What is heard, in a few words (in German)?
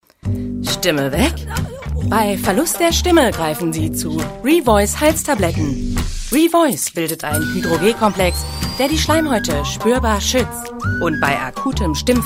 Werbung Revoice